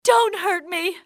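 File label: dont hurt, english female; XBOX; civ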